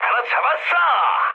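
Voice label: Korean player killed enemy mood high, speech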